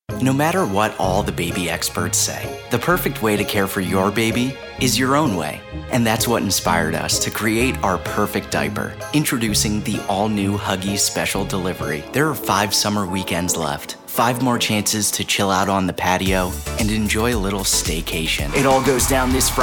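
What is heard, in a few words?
Commercial voice over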